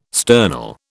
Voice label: "sternal", British